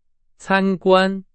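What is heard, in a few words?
Chinese, vocabulary; hsk2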